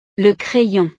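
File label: "le crayon", French; utiles escolares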